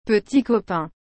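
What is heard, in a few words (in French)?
"petit copain", French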